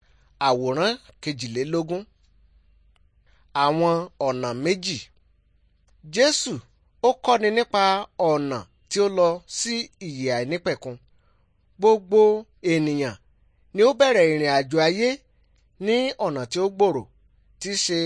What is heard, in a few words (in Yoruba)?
good news yoruba